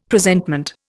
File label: "Presentment", Indian; Dictionary